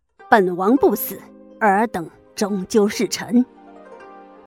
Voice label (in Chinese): 霸气